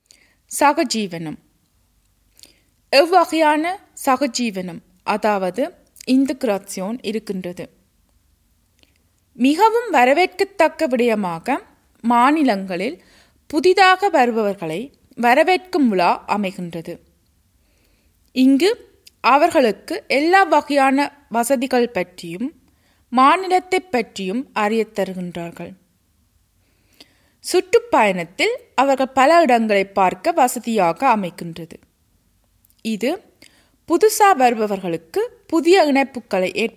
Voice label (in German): Erstinformation Audio Tamil